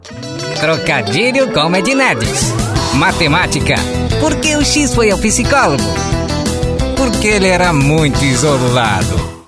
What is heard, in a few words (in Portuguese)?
CARICATO